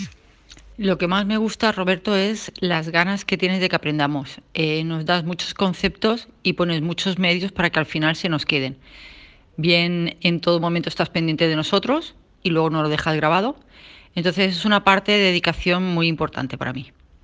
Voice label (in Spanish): Testimonio; Clase Informatica